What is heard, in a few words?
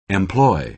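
"employ", American English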